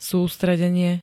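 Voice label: "sústredenie", Slovak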